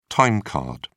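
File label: "time card", British English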